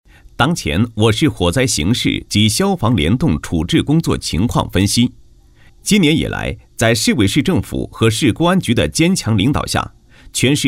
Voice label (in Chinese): 男27-报告类; 当前我市火灾形式及消防联动处置工作情况分析